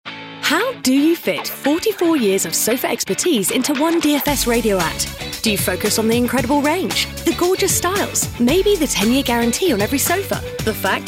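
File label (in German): British English, Freundlich; Fremdtake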